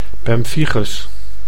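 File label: "pemphigus", Dutch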